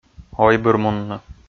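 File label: "ойбырмунны", Komi, male; kpv audio dict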